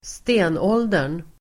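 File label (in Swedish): "stenåldern", Swedish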